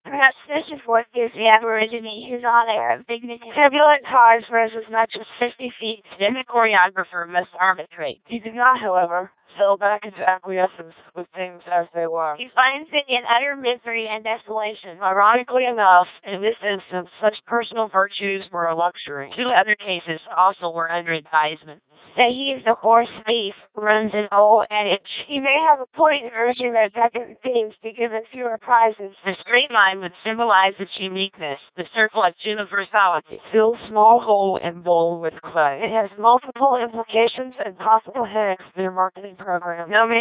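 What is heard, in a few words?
female, English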